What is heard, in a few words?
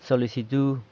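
"solisitu", San Lucas Quiaviní Zapotec; application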